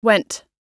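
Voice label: "went", American English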